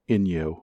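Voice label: "inyo", US English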